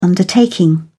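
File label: "undertaking", British English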